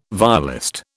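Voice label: "violist", British English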